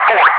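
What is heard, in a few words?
"4", English speech, Wingman; DCS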